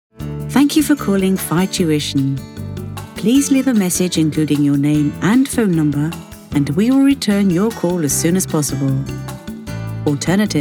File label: IVR Voicemail With Music; LovelyVoice; Phi Tuition